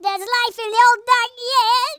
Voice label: "amazing", Grandpa